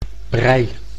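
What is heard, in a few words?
"brij", Dutch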